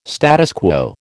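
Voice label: "status quo", American English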